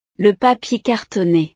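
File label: "le papier cartonee", French; utiles escolares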